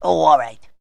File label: oh all right cartoon; Voice